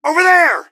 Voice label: over there, gasmask; scmod